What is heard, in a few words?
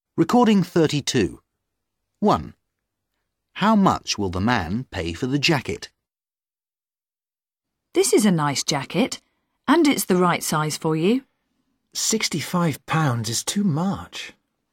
dialog